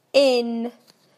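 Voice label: "in", British English; PictureDictionary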